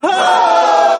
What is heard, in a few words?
"ho" group of men; ooh ahh; ho hoo woo